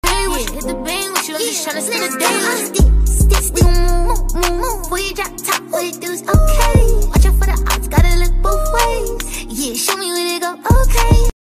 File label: impatient